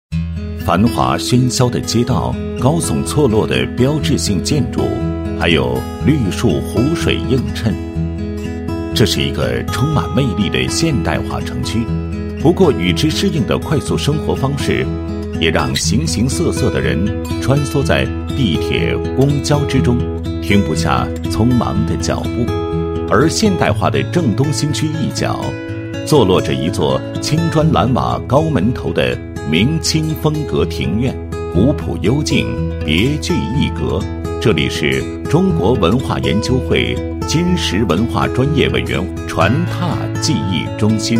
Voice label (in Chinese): 男 文化; 中国文化研究会金石文化专业委员会传拓技艺中心